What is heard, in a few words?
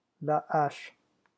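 "la hache", French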